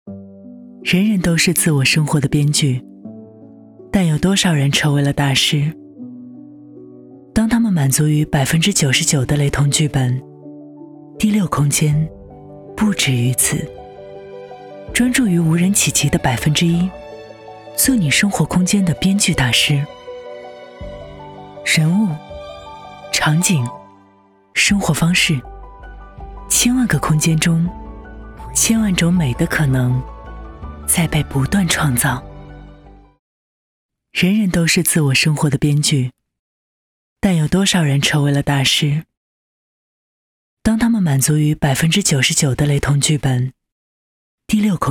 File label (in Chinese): A女 宣传片; 第六空间